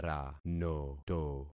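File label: "ranoto", concatenated syllables; Affixing experiment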